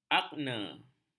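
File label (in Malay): "akne", Malay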